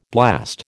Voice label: "blast", American